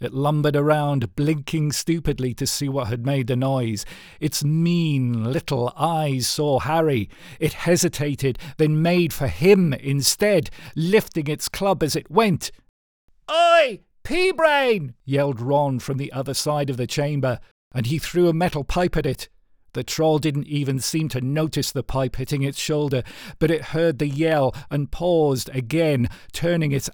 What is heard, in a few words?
Book Narration